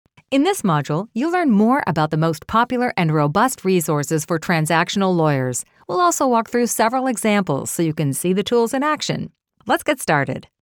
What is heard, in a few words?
Engaging Upbeat